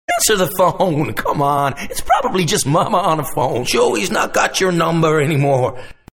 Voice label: Call From The Mafia